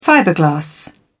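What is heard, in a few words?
"fiberglass", English, British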